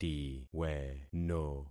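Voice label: "tiweno", concatenated syllables; Affixing experiment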